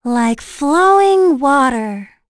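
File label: Vox Victory, voices heroes English; Laias; kingsraid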